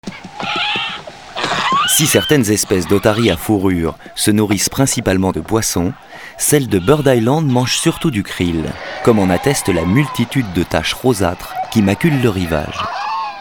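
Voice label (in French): DOCUMENTAIRE ANIMALIER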